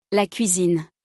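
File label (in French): "La cuisine", French; vocabulaire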